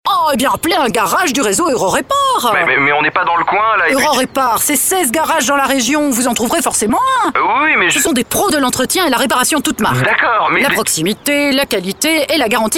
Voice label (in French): pub belle mere voix off; showreel